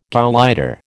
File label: "gauleiter", American